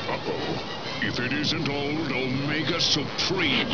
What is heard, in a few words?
uh oh